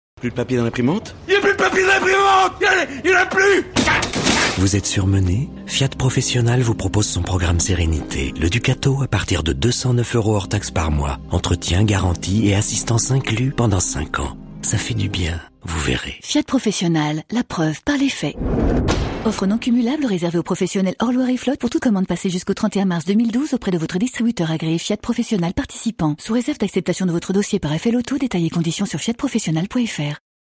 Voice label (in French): radio pub spot